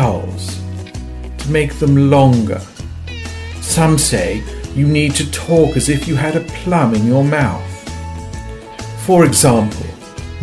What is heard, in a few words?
The posh English accent